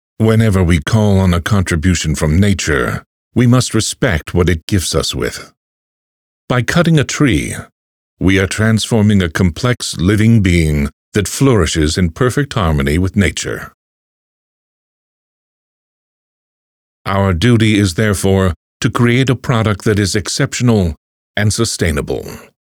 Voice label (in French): Voix EN Studio Pro